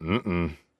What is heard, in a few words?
Emote Negative